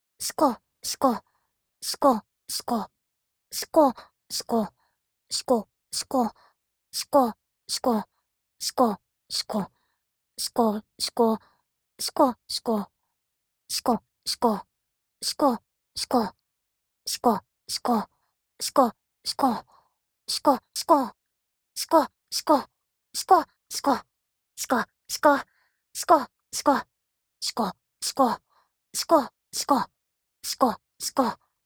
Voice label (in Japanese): しこしこの掛け声で自慰を促す; 自慰支援(普); 1.5倍速